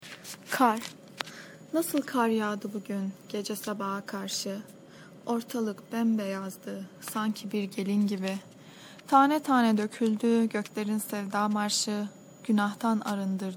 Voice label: snow turkish; city of nonexistence